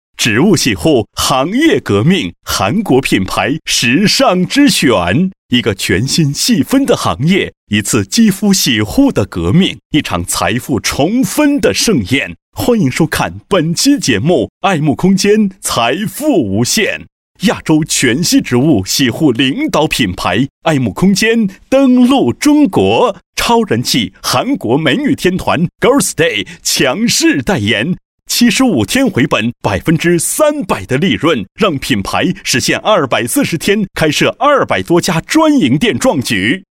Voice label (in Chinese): 男, 电视栏目; 爱沐空间登录中国